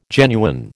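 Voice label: "genuine", American English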